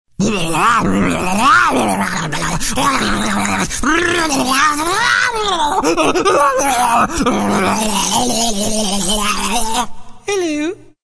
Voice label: insane hello